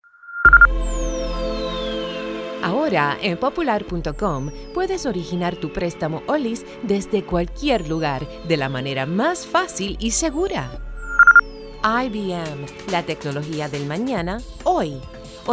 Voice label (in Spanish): mensajes en espera